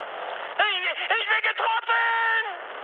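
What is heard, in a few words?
German aircraft destroyed mood high, speech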